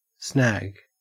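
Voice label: "snag", Australian English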